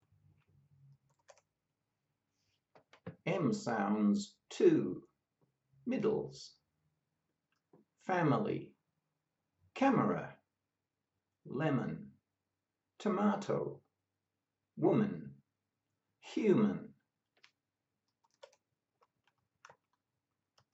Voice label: M sounds middles, pronunciation of australian english